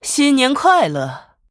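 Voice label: Female, Chinese; Human; HAPPY NEW YEAR; zh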